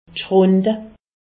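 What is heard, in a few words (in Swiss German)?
"schrunde", Alsatian; medecine; gerçures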